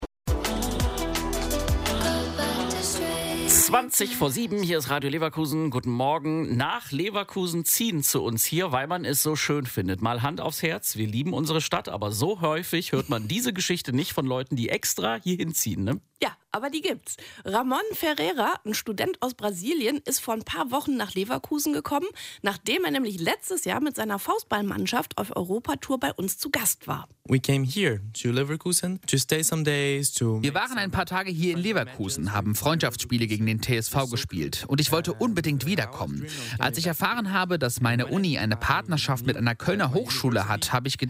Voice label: Aircheck